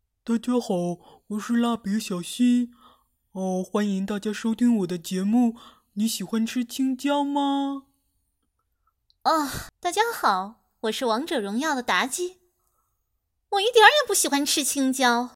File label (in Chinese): 角色变声类